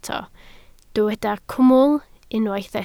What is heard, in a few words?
"cwmwl", Welsh